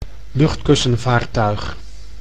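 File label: "luchtkussenvaartuig", Dutch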